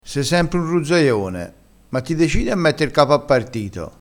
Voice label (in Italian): "ruzzaione", crespinese